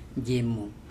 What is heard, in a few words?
"jemo", andamanese; shark